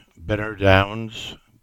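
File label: "Binner Downs", English; place-names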